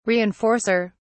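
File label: "reinforcer", British English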